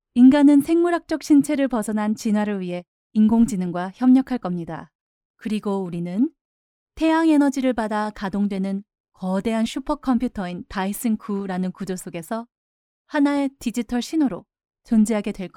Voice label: female korean voice over narrative